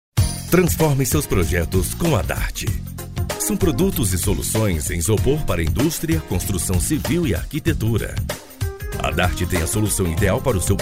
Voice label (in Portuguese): PADRÃO INSTITUCIONAL